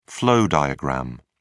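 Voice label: "flow diagram", British English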